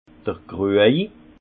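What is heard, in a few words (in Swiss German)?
"kruej", Haut Rhin; vin; crucheterre cuite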